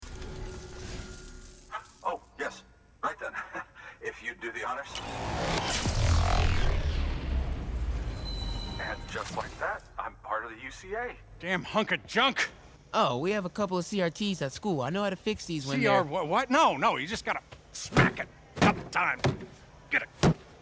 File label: animation men